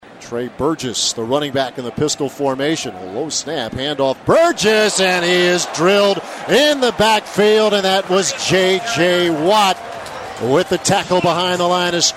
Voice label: football radio clips tackle for loss end half; JJ WATT; UW Athletics; Bucky Badger